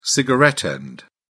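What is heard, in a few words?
"cigarette butt", British English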